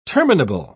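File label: "terminable", British English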